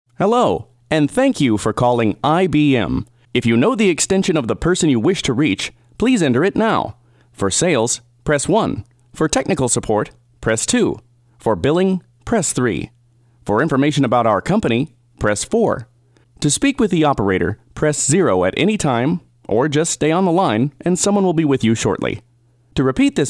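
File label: American English, male, voice over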